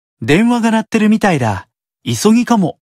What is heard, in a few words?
Call Notification Voice; Solomon